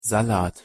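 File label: pronunciation "salat", German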